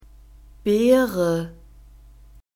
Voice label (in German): "Beere", German; fruits